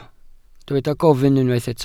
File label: "gofyn", Welsh